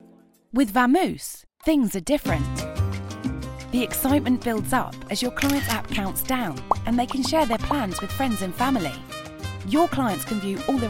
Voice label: english british corporate

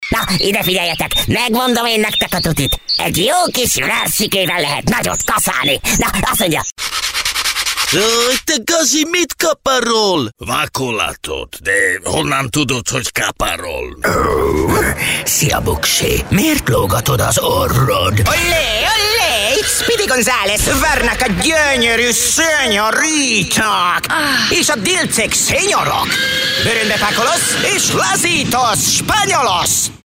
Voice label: hungarian animation

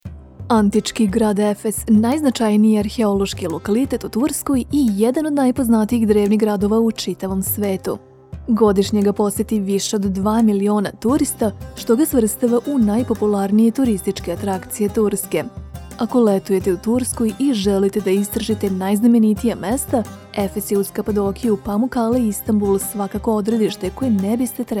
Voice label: serbian audiobooks

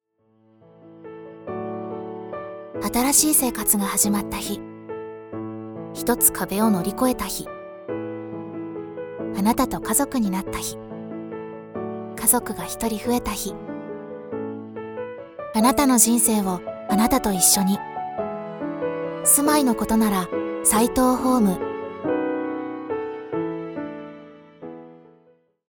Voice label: Japanese real estate

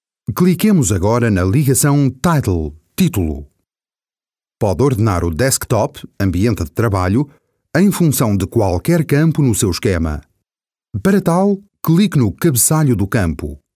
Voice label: portuguese e-learning

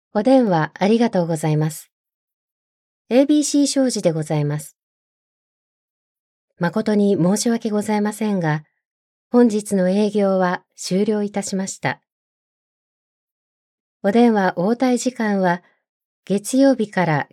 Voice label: japanese ivr; Japanese voice actor

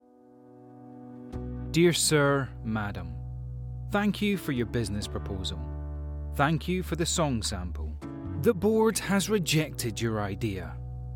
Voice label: english scottish automotive

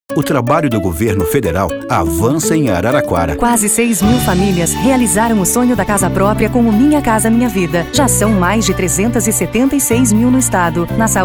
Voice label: portuguese brazilian political ads

